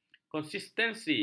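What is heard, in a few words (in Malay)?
"konsistensi", Malay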